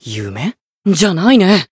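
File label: Voice encount Japanese; mighty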